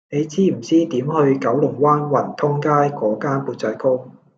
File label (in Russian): Голоса, Гонконгский